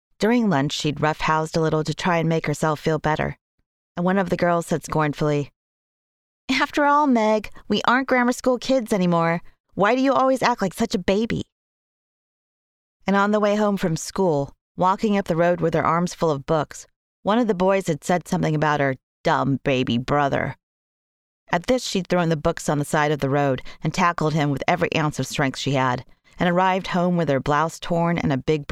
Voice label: Audiobook Sample Middle Grade SciFi MF Dialogue